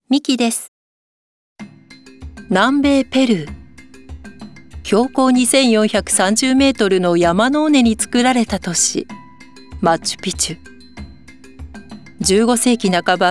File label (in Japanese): ドキュメンタリー